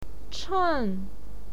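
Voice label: "cheng", Mandarin Chinese, fourth tone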